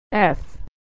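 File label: "f", American English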